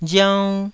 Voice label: "riaunn1", taiwanese syllable